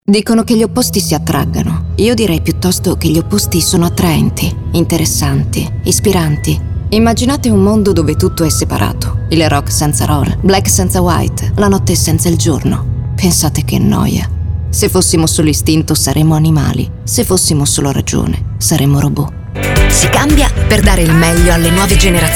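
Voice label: ITALIAN VOICES Cool Natural, female voices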